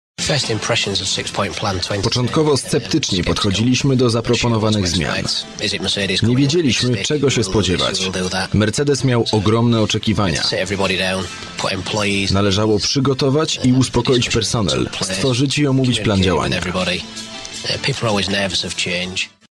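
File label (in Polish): VOICEOVER, male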